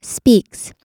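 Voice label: "speaks", American English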